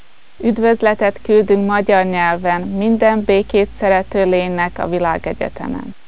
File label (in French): hongrois; voyager